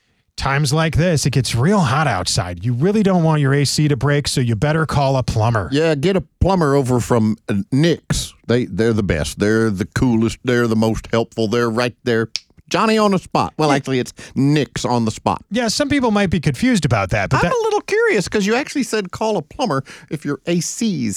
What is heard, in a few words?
RADIO AD; nicks plumbing radio ads